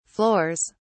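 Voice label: "floors", British English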